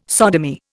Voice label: "sodomy", Indian